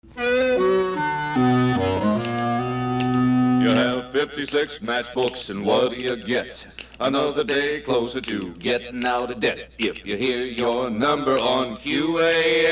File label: Money Match Promo; WQAM